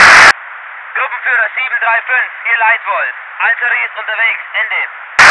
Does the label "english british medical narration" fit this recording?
No